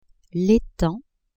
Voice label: "etang", French; campagne

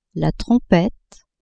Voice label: "trompette", French; la trompette the trumpet; instruments

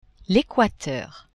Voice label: "equateur", French; geographie

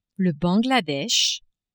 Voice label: "Bangladesh", French

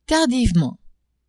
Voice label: "tardivement", French; adverbs

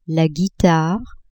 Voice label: "guitare", French; la guitare the guitar; instruments

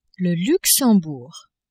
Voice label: "Luxembourg", French

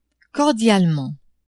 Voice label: "cordialement", French; adverbs

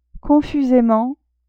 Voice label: "confusement", French; adverbs

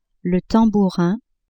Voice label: "tambourin", French; le tambourin the tambourine; instruments

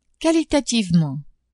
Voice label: "qualitativement", French; adverbs

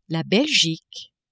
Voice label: "Belgique", French

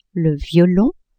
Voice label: "violon", French; le violon the violin; instruments

